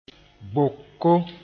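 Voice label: "boko", Mooré; hole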